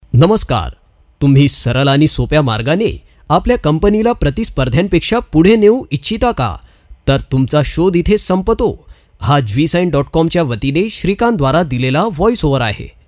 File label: Real Marathi Male Voiceover